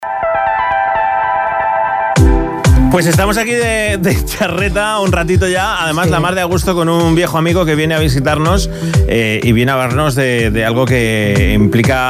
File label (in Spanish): entrevista, radio; futbol; radio sirena COPE